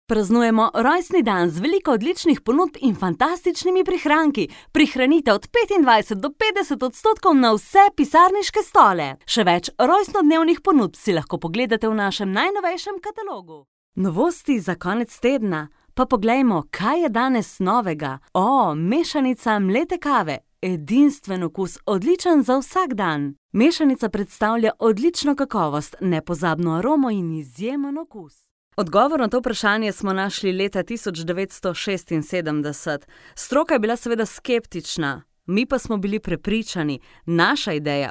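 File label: Slovenian, female; Professionelle Sprecher und Sprecherinnen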